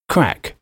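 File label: "crack", English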